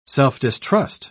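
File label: "self-distrust", British English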